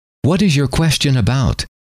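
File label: what is your question about, male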